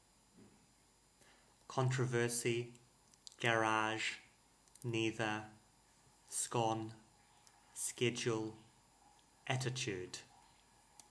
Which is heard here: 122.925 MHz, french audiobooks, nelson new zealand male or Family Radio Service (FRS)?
nelson new zealand male